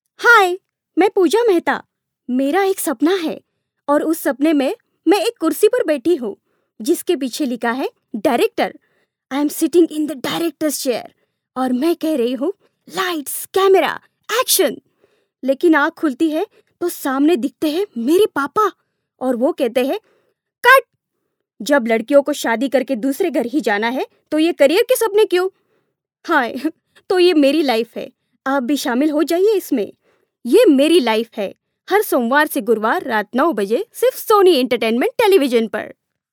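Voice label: TVC hindi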